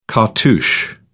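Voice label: "cartouche", English, British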